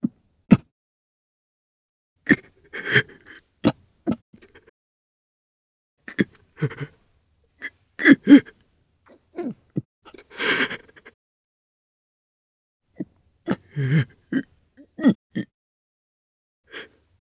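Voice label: Vox Sad, Japanese; voices heroes jp; Chase; kingsraid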